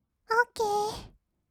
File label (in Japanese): "オーケー", kawaii voice; 音ゲー